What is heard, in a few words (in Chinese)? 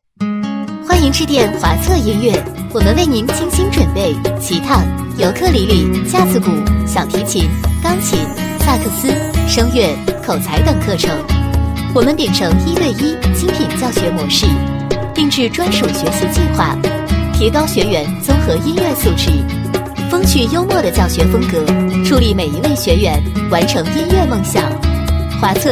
彩铃 音乐培训; 华策音乐培训